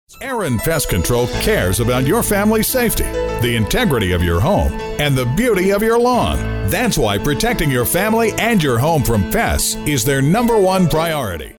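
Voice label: English US, male, corporate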